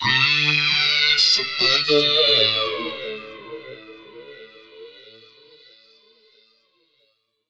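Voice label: effects talk, Funky Vocals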